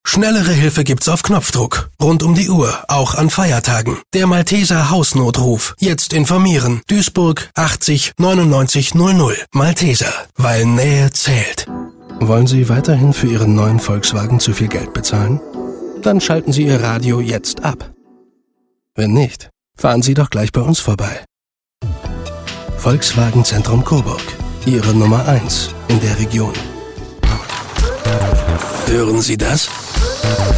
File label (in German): germany male werbung sprecher